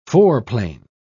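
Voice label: "foreplane", British English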